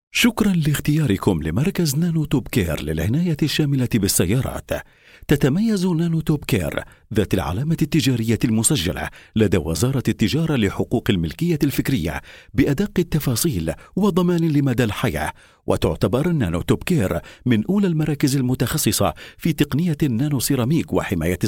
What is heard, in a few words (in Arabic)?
الرد الآلي صوت رجالي